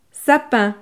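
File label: "sapin", French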